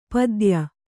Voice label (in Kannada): "ಪತ್ಯ", Kannada